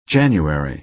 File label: "january" US